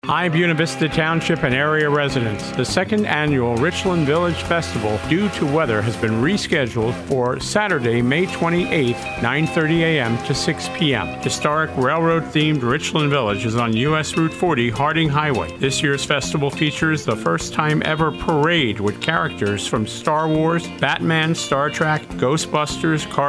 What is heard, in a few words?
RV Radio Commercial; Buena Vista